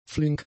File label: "flink", German; adjectives